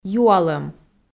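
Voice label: "юалӧм", Komi, female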